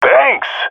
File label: thankful, jungle male epic; Source Model Packs; Counter Strike Model Pack; CS2